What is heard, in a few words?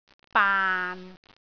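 "baan6", Cantonese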